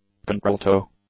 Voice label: "contralto", English; nouns